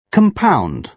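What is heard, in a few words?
"compound", British English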